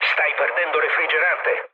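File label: Italian damaged water mood low, speech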